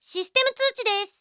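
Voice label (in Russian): zvuki s golosom iaponskoi anime devushki, Japanese anime girl voice sounds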